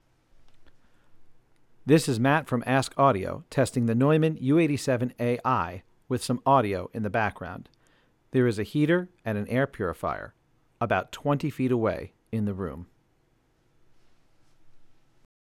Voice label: u87ai noisy